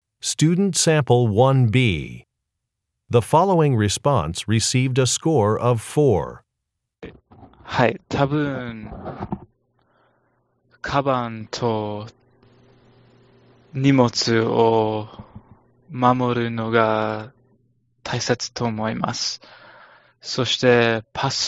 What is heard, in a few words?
Japanese conversation